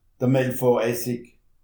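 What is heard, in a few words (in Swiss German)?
"melfor", Alsatian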